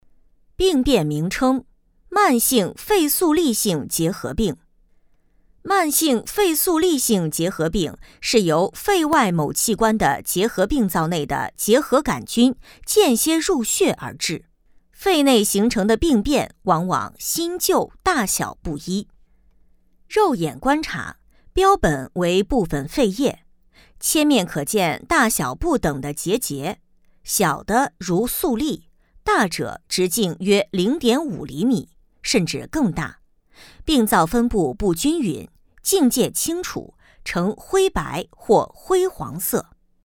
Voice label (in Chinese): 女, 课程 课件; 医学标本课件